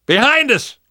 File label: watch out behind, survivor voice; namvet; Left 4 Dead 2; left4dead2 dlc2